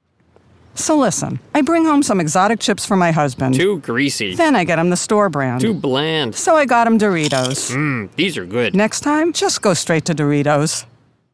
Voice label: Commercial; Doritos